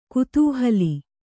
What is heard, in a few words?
"ಕುತೂಹಲಿ", Kannada